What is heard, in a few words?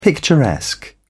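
"picturesque", British English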